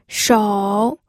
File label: "shou3", pinyin